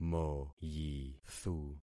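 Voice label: "moyithu", concatenated syllables; Affixing experiment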